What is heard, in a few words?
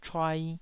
"chuai2", taiwanese